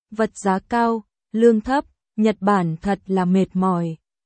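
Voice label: Vietnamese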